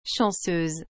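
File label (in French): "chanceuse", French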